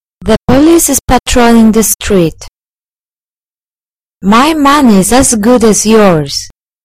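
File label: "the police", British English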